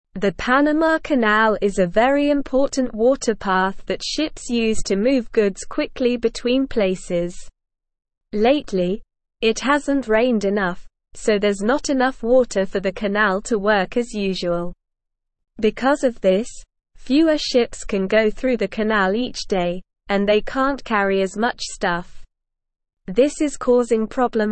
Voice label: English Lower Intermediate SLOW Reading; Panama Canal Needs More Water for Ships